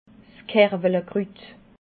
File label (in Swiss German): "kerwelekrut", alsace